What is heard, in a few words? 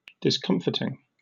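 "discomfiting", British English; pronunciations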